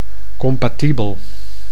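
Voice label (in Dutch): "compatibel", Dutch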